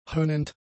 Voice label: "höhnend", German; adjectives

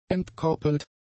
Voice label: "entkoppelt", German; adjectives

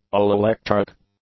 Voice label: "all-electric", English; adjectives

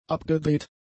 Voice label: "abgedreht", German; adjectives

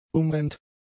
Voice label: "umrennt", German; adjectives

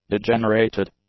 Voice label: "degenerated", english; adjectives

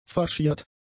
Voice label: "faschiert", German; adjectives

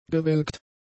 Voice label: "gewelkt", German; adjectives

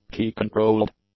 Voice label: "key-controlled", english; adjectives

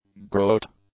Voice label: "groat", English; nouns

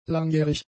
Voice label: "langjährig", German; adjectives

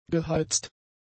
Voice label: "geheizt", German; adjectives

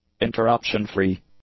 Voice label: "interruption-free", english; adjectives

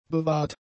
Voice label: "bewahrt", German; adjectives

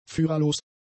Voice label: "führerlos", German; adjectives

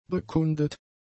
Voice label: "bekundet", German; adjectives

